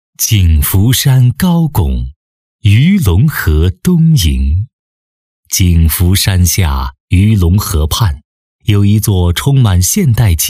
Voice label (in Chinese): 男 专题 中心小学